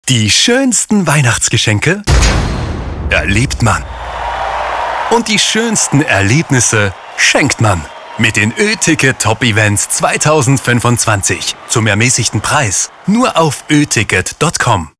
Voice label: radio; oeticket